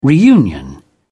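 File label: "reunion", British English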